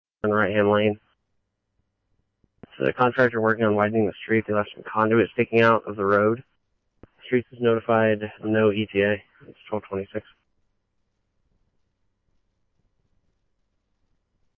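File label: scanner, Phoenix Police Department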